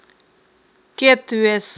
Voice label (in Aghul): "ккеттвес", aghul